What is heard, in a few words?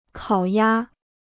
"烤鸭", Chinese